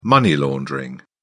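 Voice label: "money laundering", English, British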